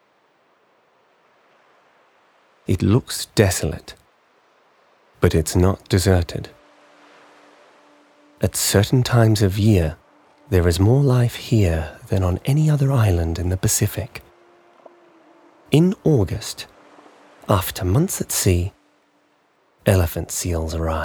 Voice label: Documentary